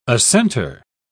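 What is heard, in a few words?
"assentor", British English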